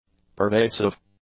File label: "pervasive", english; adjectives